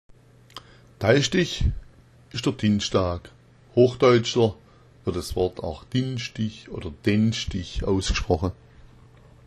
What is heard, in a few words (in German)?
daischtich, schwaebisch